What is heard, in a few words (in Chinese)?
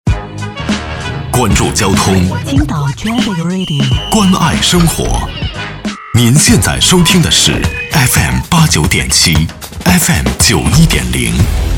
交通广播 台呼; 青岛交通广播